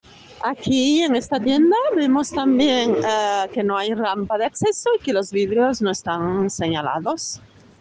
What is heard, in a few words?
Voice message